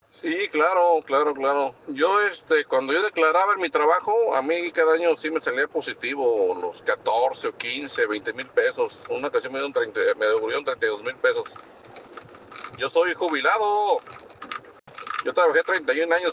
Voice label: whatsapp ptt